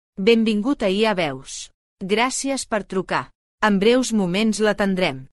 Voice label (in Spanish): tono profesional, Voz mujer con I.A., Locución en catalán y tono profesional; Alba